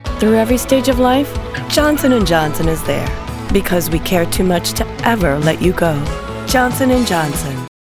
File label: Commercial; Johnson Johnson